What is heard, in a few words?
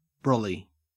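"brolly", Australian English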